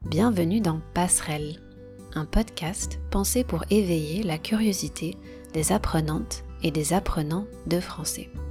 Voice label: whisper french